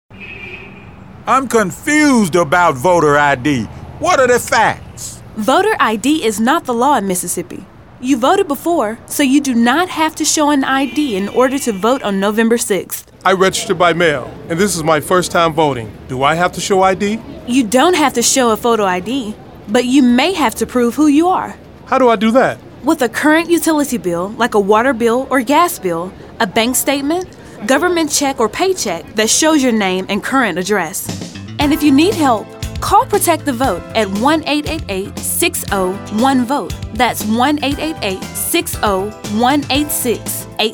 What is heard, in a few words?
Radio; NAACP; GOTV